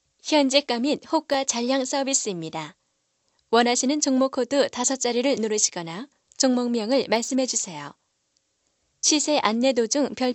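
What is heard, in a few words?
Korean, female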